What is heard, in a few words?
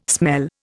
"smell", Indian